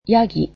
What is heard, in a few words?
goat, japanese